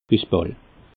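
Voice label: "bissball", Alsatian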